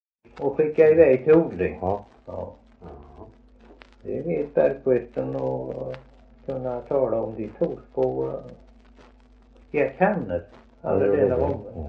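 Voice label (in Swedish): Värö Halland, Svenska sagor dialekter; Folkminnen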